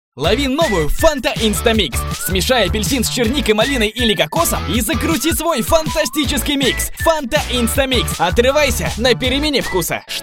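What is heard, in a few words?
Russian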